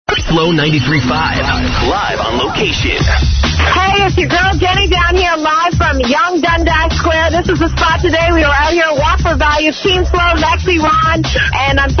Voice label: radio